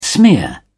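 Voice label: "smear", English, British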